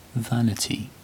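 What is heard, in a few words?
"vanity", British English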